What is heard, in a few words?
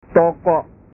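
"toko", Indonesian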